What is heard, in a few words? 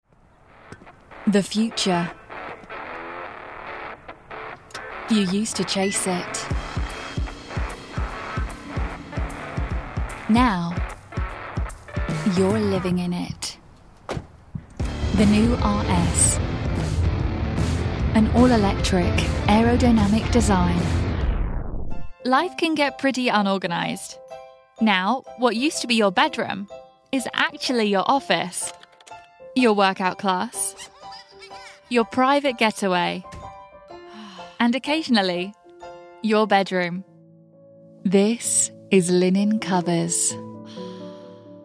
Commercial, british female voiceover